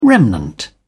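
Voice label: "remnant", British English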